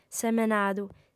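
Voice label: "semenadu", female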